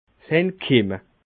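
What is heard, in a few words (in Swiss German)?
"entkimme", Alsatian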